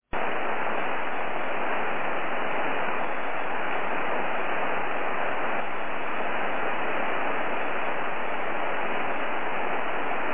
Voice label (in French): phonie bruit